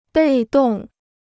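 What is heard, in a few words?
"被动", Chinese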